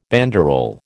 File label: "banderole", American